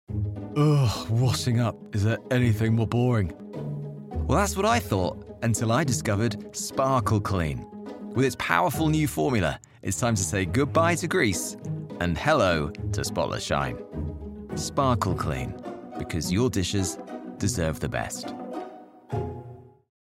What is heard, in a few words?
Commercial Detergent; voice-over